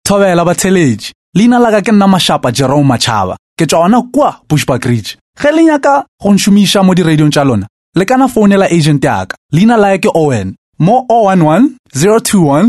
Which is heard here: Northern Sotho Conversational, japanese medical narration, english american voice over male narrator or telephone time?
Northern Sotho Conversational